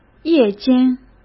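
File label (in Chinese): "夜间", Mandarin Chinese; chinese-flashcards; hsk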